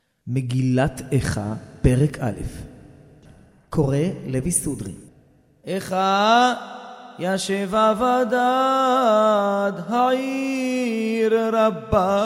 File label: recorded tanach megilat eicha sefardi moroccan